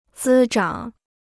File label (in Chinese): "滋长", Chinese